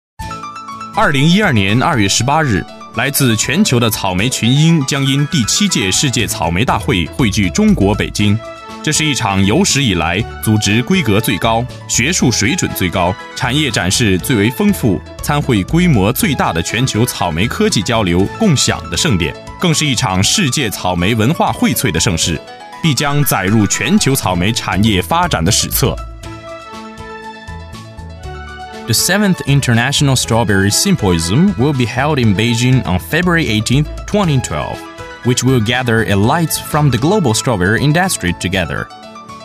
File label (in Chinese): 英男 年轻时尚 中英文; 草莓科技交流盛典